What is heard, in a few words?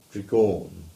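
English; Tregawne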